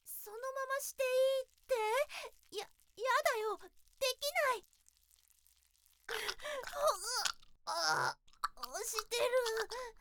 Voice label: 排泄シーン SE入り; 効果音入り

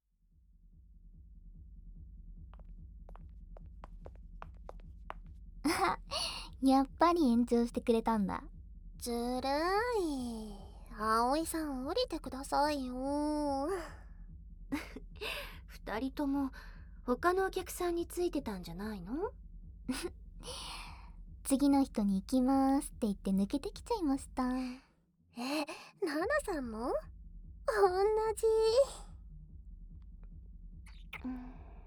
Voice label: ご延長♪3人とイチャイチャささやきハーレム両耳舐め

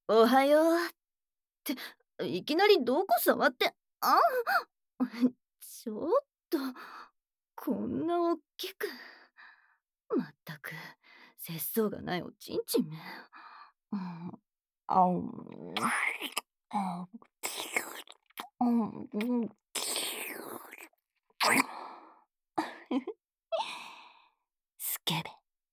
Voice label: アラーム ボイス; むらた屋

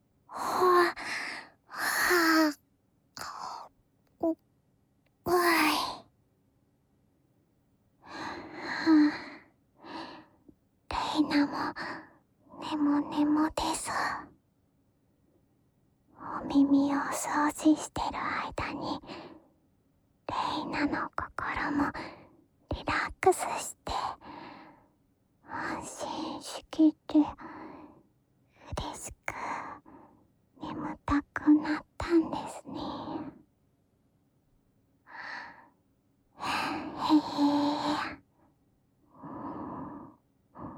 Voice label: ぴったりくっつきあってぬくぬく添い寝, ASMR

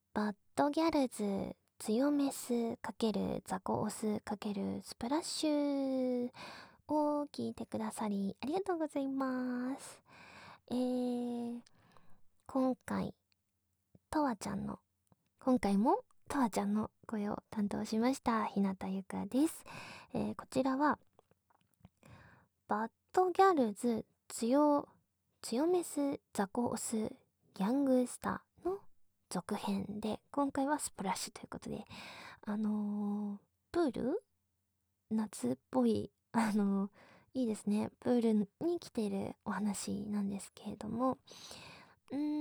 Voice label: フリートーク

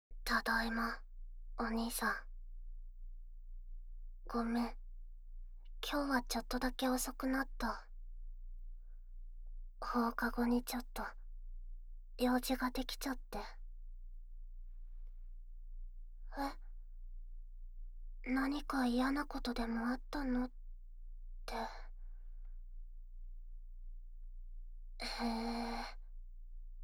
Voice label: お兄さん…エッチなキス…してみたい… SEあり

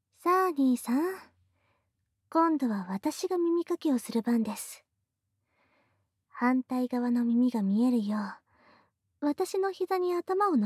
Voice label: クールなノワレちゃんが兄さんの耳かきとマッサージしてあげる, ASMR; SEなし

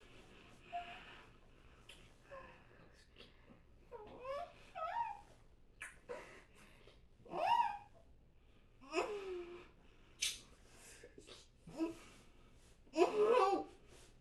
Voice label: ピロートーク 男性声多め; 騎乗位後ピロートーク; Audio Denoise

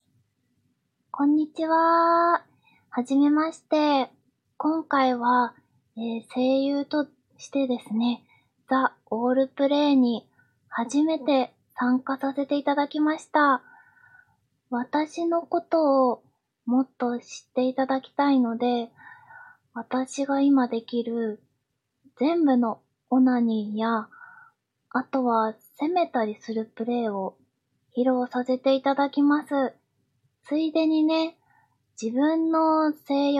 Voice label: 自己紹介, ASMR

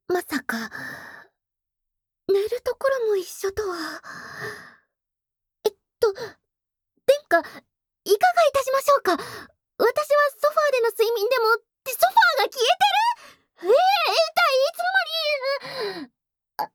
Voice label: 添い寝; 後日談

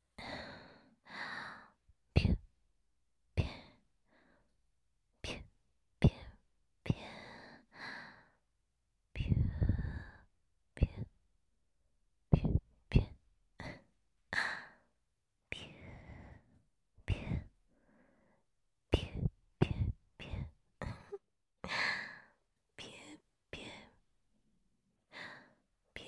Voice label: 囁き淫語連呼; SEあり

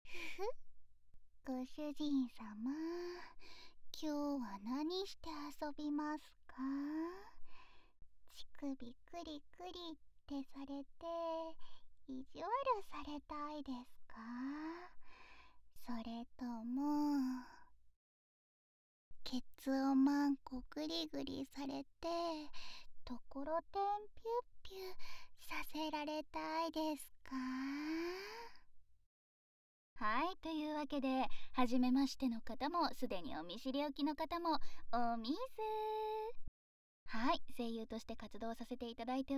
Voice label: フリートーク, ASMR

